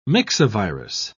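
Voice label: "myxovirus", British English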